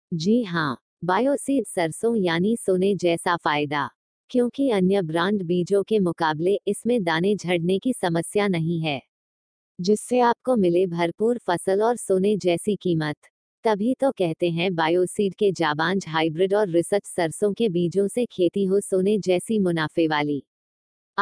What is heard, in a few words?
Female; Bioseed